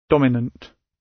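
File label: "dominant", British English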